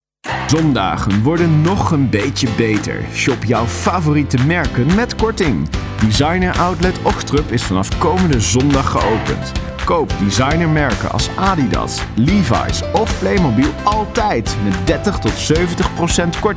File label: dutch promos